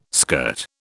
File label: "skirt", British